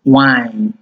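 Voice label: "whine", Nigerian Pidgin, male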